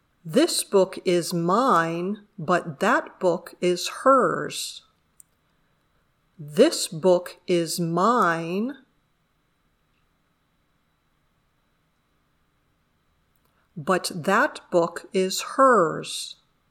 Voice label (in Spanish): Dictado